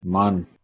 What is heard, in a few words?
"maan", Marathi